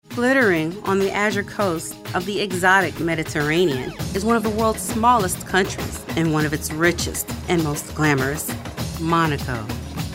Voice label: narrative